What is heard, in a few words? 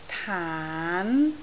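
"taan2" Cantonese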